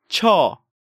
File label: "Chyeo", Korean